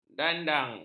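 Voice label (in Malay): "dandang", Malay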